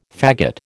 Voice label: "fagot", American